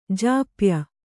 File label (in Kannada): "ಜಪ್ಯ", Kannada